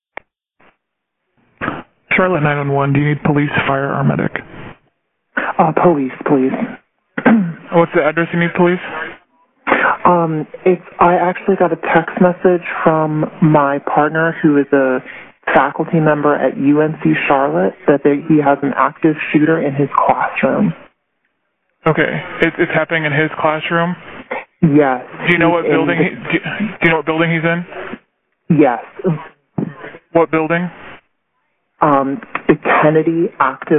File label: 911 call